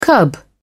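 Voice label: "cub", British English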